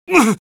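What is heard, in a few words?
Scout pain sharp, Spanish; Scout audio responses/es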